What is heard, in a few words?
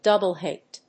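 "double hit", English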